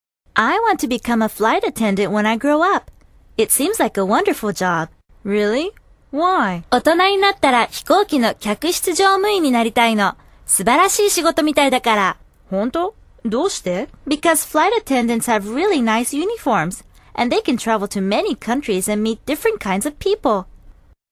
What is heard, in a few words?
usa woman